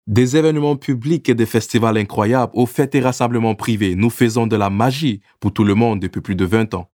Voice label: Reklāma FR